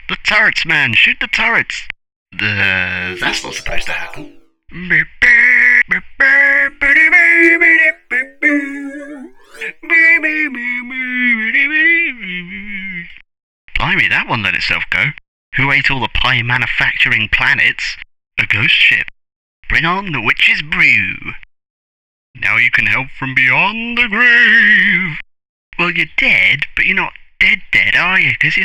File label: Narration; Really Big Sky